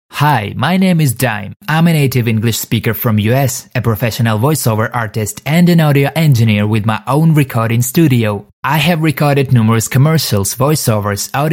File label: Russian English; Russian Male; Dime